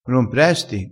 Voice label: "impresta", crespinese

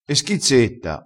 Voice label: "schizzetta", crespinese

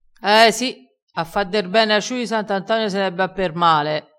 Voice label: "levassi la sete", crespinese

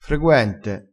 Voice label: "freguente", crespinese

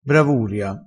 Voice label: "bravuria", vocabolario dialettale crespinese

